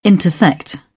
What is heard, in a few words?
"intersect", British; AmericanBritish